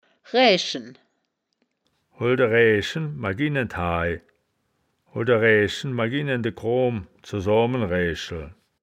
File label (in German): "raeaeschen", wittlicher; das wittlicher woerterbuch; woerterbuch vertonung